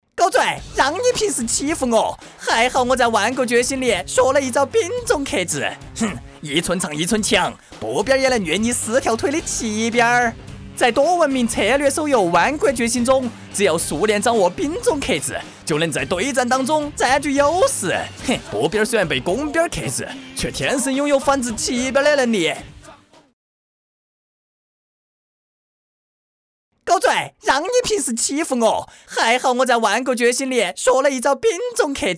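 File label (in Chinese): 川话沙雕逗比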